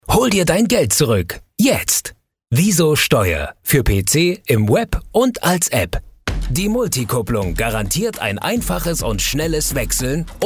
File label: german voiceover locutor joven aleman